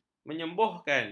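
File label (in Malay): "menyembuhkan", Malay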